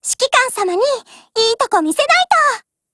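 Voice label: SKILL, Japanese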